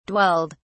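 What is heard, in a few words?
"dwelled", British English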